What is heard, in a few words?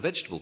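"vegetable", Standard Southern British English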